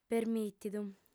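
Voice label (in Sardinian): "permìtidu", female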